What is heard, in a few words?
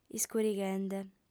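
"iscurighende", female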